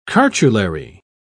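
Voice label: "cartulary", English, British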